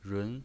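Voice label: "run", Mandarin Chinese pinyin voice, second tone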